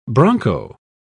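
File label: "broncho", British English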